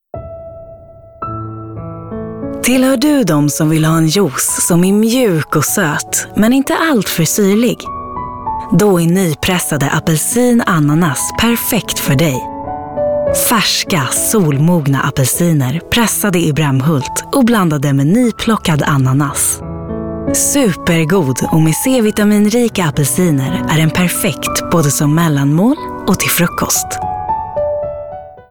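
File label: Swedish female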